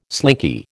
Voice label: "slinky", American